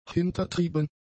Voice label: "hintertrieben", German; adjectives